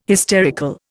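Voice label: "hysterical", Indian English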